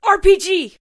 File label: "rpg", Female; Voiceover; war; Kenney's Sound Pack